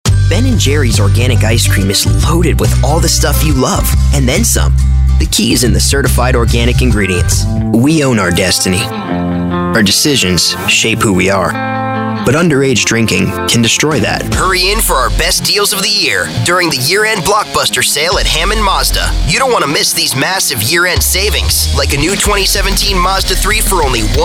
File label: VOICE OVER DEMO